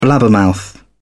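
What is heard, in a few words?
"blabbermouth", British English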